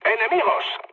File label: enemy detected mood low; speech; War Thunder